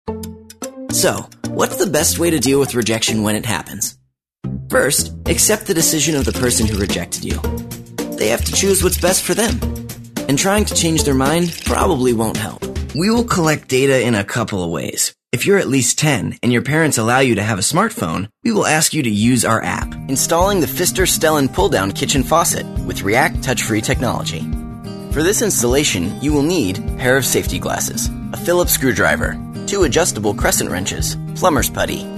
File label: english american voice over male narrator